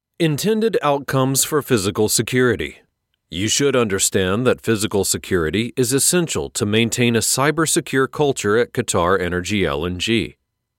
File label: american elearning